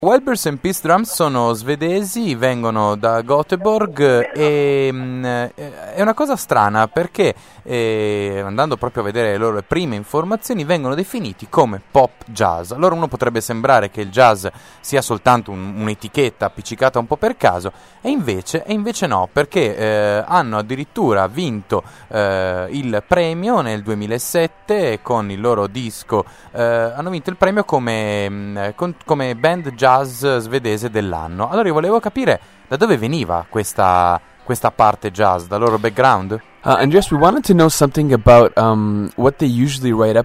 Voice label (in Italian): Intervista